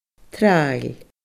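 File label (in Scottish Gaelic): "traill", Scottish Gaelic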